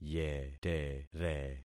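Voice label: "yetere", concatenated syllables; Affixing experiment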